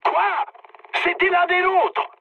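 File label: French player killed ally mood high; War Thunder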